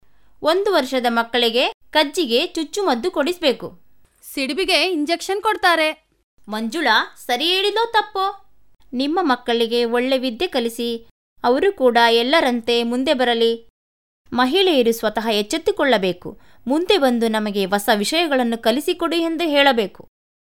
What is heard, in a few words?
Kannada Female